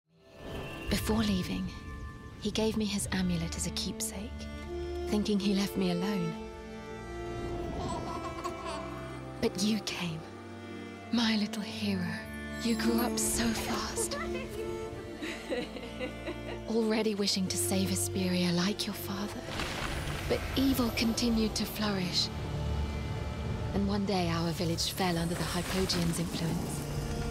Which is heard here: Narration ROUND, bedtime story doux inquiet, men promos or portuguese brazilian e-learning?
bedtime story doux inquiet